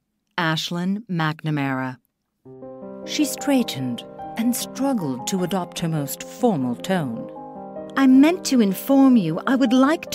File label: Multiple Voices British Excerpt